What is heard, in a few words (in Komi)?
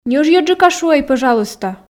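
ньӧжйӧджыка шуӧй пӧжалуйста, Komi, female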